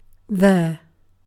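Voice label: "there", British English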